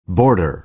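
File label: "border", American English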